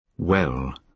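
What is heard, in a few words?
"well", British English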